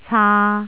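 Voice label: "caa3", Cantonese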